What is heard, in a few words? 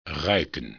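"ruiken", Dutch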